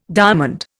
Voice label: "diamond", Indian

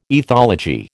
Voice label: "ethology", American

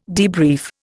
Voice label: "debrief", Indian

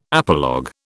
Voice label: "apologue", British

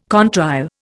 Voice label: "contrail", Indian

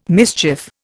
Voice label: "mischief", Indian

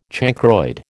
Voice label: "chancroid", American

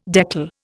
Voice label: "deckle", Indian

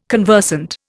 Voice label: "conversant", Indian

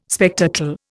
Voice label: "spectacle", Indian

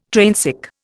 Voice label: "trainsick", Indian

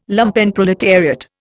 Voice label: "Lumpenproletariat", Indian

